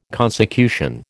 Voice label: "consecution", American

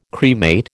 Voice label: "cremate", American

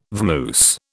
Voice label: "vamoose", British

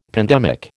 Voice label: "pandemic", American

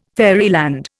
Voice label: "fairyland", Indian

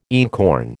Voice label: "einkorn", American

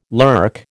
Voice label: "lurk", American

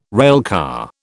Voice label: "railcar", British

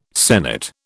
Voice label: "sennit", British

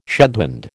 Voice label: "shedhand", American

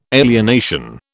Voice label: "alienation", British

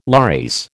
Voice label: "lares", American

